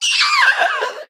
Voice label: Female Arakkoa Death

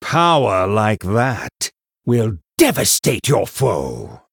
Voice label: Male Human After Triple

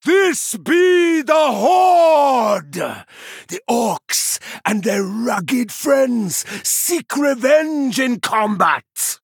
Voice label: Male Troll

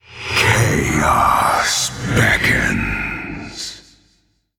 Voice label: Male Elemental

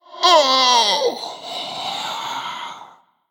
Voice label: Male Human Death

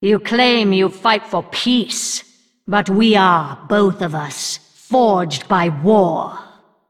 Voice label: Female Undead

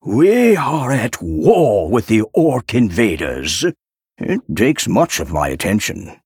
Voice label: Male Human Idle; Antonidas; Jaina

